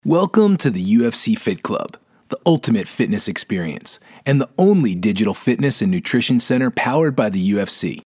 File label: Sports Announcer